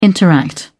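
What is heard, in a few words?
"interact", British English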